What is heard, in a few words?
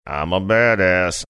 saying im a badass sing songy